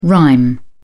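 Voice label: "rime", British English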